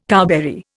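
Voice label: "cowberry", Indian English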